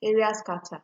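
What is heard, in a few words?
"area scatter", Nigerian Pidgin, female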